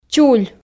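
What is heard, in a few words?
"чуль", Komi, female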